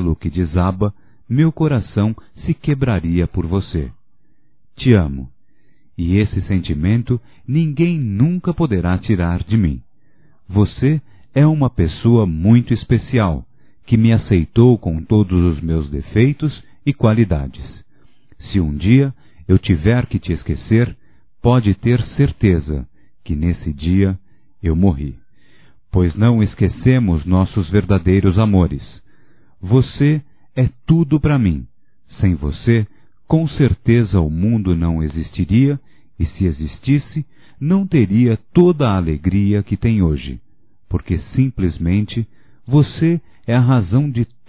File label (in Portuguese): Não vá Embora Masculino; Despedidas e boas vindas; Telemensagens 2017